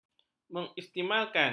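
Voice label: "mengistimalkan", Malay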